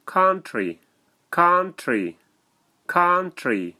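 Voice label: "Country", English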